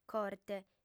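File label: "corte", female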